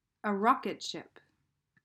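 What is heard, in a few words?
"a rocket ship", English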